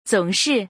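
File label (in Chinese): "zong3shi4", Mandarin Chinese; zǒngshì; 总是; いつも、ずっと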